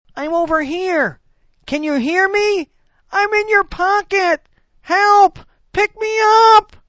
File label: cellphone pleads for help